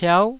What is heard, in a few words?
"piau9", taiwanese